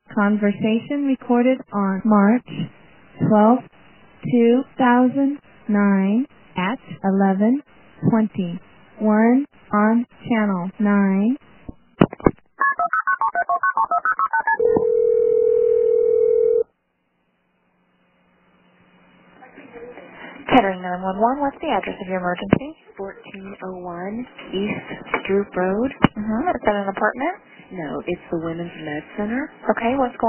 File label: 911 call